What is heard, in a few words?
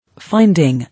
"Finding", English